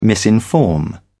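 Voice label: "misinform", British English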